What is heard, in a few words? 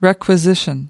"requisition", us